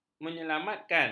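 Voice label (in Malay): "menyelamatkan", Malay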